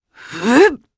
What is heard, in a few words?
Casting, jp; kingsraid